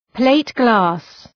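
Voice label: "plate glass", English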